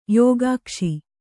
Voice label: "ಯೋಗಾಕ್ಷಿ", Kannada

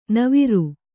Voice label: "ನೆವರು", Kannada

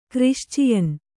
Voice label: "ಕ್ರಿಶ್ಚಿಯನ್", Kannada

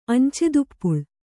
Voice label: "ಅಂಚೆದಿಪ್ಪುಳ್", Kannada; añcedippuḷ; noun; swan-down.

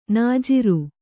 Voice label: "ನಾಜರು", Kannada

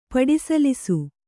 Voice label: "ಪಡಿಸಲಿಸು", kannada

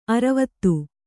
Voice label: "ಅರ್ವತಿ", Kannada

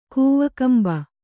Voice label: "ಕೂವಕಂಬ", Kannada

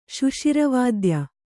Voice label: "ಶುಷಿರವಾದ್ಯ", kannada

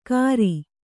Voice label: "ಗರಿ", Kannada

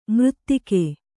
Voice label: "ಮರೆದೆಗೆ", Kannada

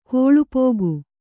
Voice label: "ಕೊಳ್ಪೋಗು", kannada

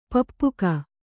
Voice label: "ಪಪ್ಪುಕ", Kannada

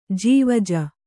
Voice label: "ಜವಾಜಿ", Kannada

